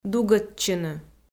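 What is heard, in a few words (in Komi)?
"дугӧдчыны", Komi, female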